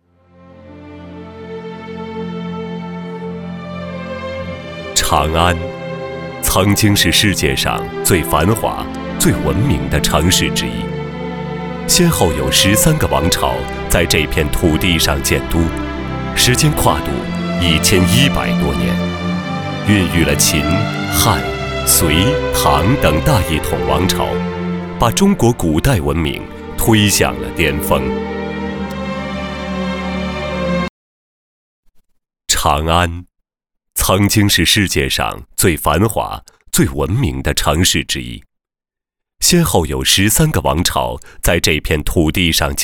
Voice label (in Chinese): 男 纪录片 讲述